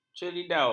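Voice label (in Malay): "ceridau", Malay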